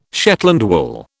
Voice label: "Shetland wool", British

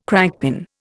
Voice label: "crankpin", Indian

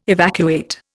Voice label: "evacuate", Indian

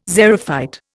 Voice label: "xerophyte", Indian

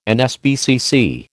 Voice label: "NSPCC", American

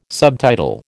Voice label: "subtitle", American

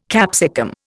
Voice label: "capsicum", Indian

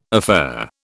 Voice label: "affaire", British

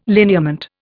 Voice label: "lineament", Indian

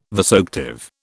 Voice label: "vasoactive", British

